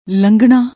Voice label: "langnna", Punjabi; ਲੰਘਣਾ

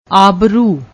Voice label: "aabroo", Punjabi; ਆਬਰੂ

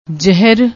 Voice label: "jehar", Punjabi

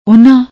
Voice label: "Ohana", Punjabi; ਉਹਨਾਂ

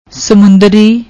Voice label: "ਸਮੁੰਦਰੀ", Punjabi

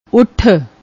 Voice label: "Utth", Punjabi; ਉੱਠ

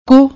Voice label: "kuu", Punjabi; ਕੁ

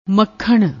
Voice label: "makhan", Punjabi

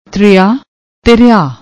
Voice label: "triaa", Punjabi; ਤਿਰਿਆ

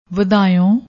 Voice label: "vadaou", Punjabi

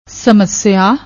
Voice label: "ਸਮੱਸਿਆ", Punjabi

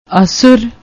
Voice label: "assurr", Punjabi; ਅਸੁਰ

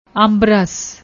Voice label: "ambras", Punjabi

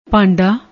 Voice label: "bhaanda", Punjabi

